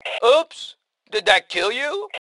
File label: Taunt Sarcasm, Male; T2 Male 03